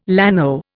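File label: "llano", Indian English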